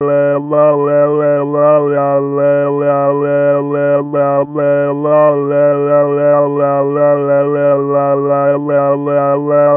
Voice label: imitator babble aba space